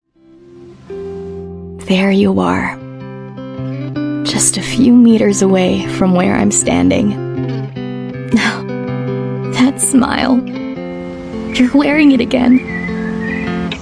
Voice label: Filipino; FujiFilm